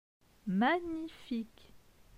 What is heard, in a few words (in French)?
"magnifique", francais; phonetique; les consonnes